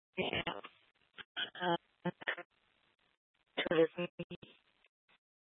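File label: Tempe Police Department, scanner; Rgnl Wireless Co-op (RWC)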